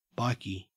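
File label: "bikie", Australian English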